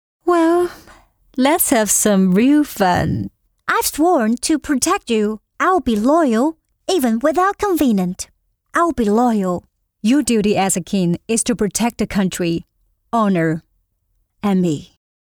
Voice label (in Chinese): 女, 英语-游戏多角色